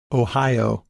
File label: "Ohio", English Pronunciation